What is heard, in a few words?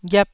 "ghiap1", Taiwanese syllable